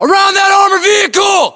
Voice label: US landmark near armored vehicle, battlechatter, english; localized english